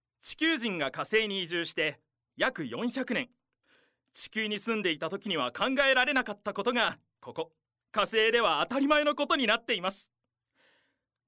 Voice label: narration